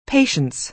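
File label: "patience", American English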